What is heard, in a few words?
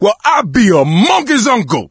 reaction negative, survivor voice